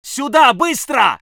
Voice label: voice sov infantry